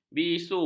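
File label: "bisu", Malay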